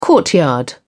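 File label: "courtyard", British English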